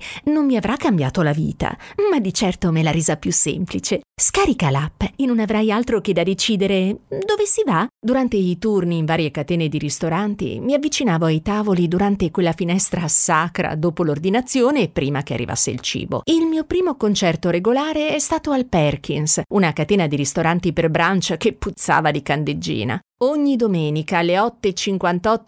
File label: italian voiceover natural